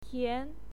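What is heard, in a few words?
"tian", Mandarin Chinese, second tone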